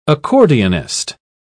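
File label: "accordionist", British English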